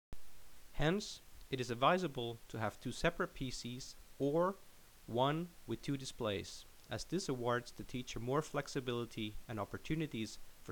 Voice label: Narration